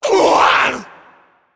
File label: Female Dragon Death